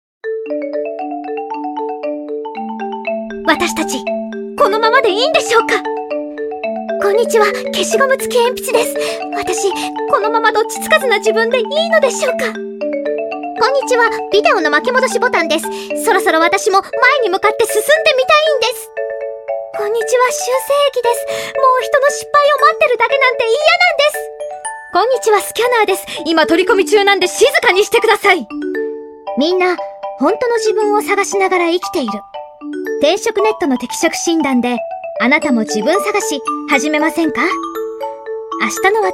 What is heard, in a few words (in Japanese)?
ナレーション